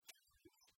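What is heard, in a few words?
"чойлысь", Komi, female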